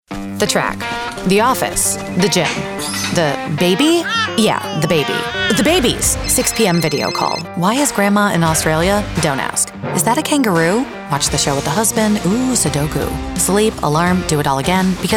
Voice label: Commercial, femalevoices